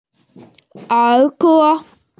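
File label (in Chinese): "er2ge1", Mandarin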